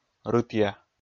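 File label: "рытъя", Komi, male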